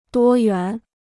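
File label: "多元", Chinese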